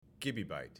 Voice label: "gibibyte" pronounced